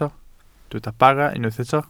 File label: "bara", Welsh